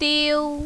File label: "diu1", mandarin, female